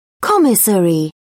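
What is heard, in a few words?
"commissary", English, British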